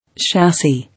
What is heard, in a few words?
"Chassis", English